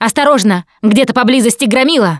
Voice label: heard charger, Left 4 Dead 2 russian, Survivor Voice Producer